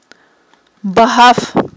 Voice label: "багьаф", Aghul

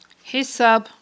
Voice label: "хIисаб", aghul

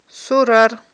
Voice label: "сурар", Aghul

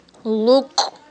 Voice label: "лукI", Aghul

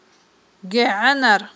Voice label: "гегIванар", Aghul

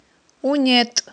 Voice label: "унетI", Aghul

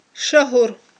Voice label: "шагьур", Aghul

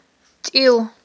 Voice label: "тIил", Aghul

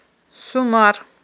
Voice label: "сумар", Aghul

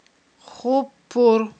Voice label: "хуппур", Aghul

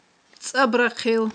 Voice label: "цIабрахъил", Aghul

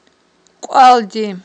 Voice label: "кIвалди", Aghul

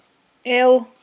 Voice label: "эл", aghul

